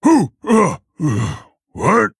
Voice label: Male Orc Attack